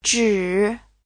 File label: "zhi3", chinese